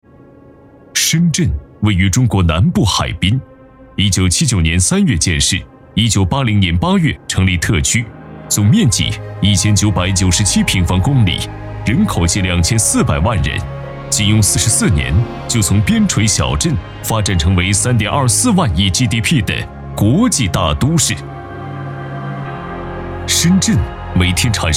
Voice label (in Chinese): 男, 专题汇报类; 深圳市生态环境局; 圳当先行